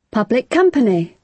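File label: "public company", British English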